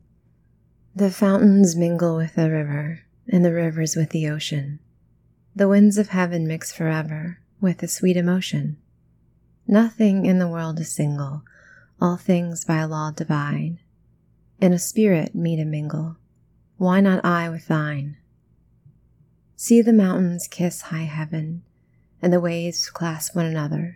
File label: poetry reading; F4A; Love's Philosophy by Percy B. Shelley